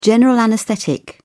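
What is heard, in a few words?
"general anaesthetic", British English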